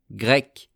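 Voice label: "grec", French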